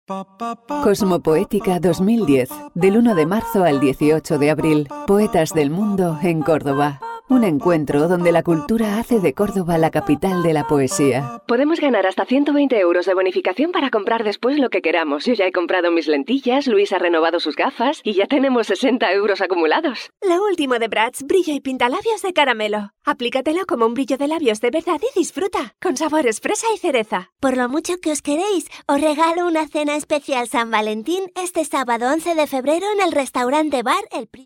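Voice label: Spanish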